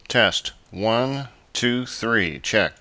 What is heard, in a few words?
Voice Normal